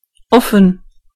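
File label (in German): "open", German; gcompris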